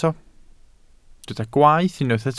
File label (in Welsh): "gwaeth", Welsh